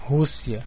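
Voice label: "russia", Brazilian Portuguese; gcompris; geography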